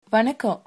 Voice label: hello, tamil; வணக்க0!; vaṇakkã; Hello (General greeting)